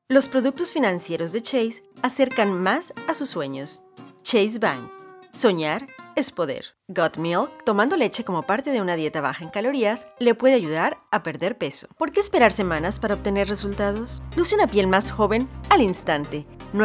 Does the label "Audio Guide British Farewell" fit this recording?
No